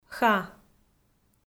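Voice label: "ха", Komi, female; kpv audio dict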